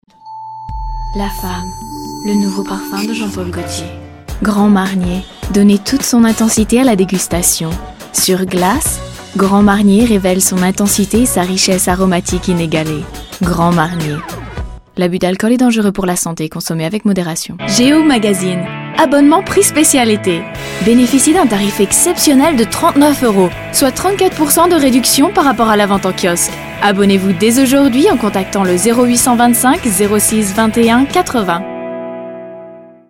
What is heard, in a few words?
French Commercial